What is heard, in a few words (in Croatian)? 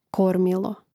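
"kormilo", Hrvatski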